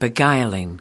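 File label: "beguiling", US English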